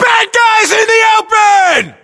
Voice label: threat infantry exposed, US